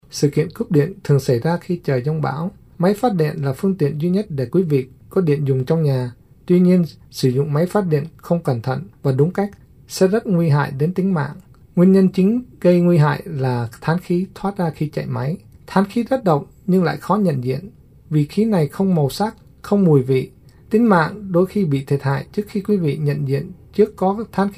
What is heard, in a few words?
vietnamese generator; disaster